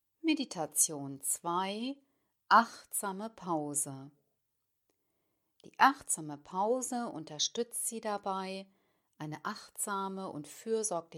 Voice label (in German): Meditation achtsame Pause